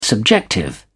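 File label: "subjective", British English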